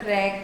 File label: "trEk Noe", andamanese